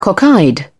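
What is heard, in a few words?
"cockeyed", British English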